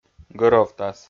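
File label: "гӧровтас", Komi-Zyrian, male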